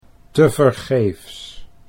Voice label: "tevergeefs", Dutch